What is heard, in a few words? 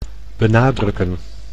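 "benadrukken", Dutch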